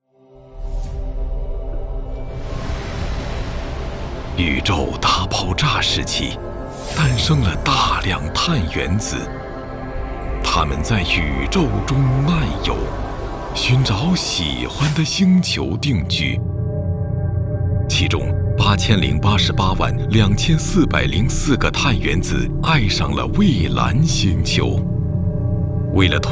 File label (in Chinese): 男 剧场版 儿童类 科幻动画 低沉神秘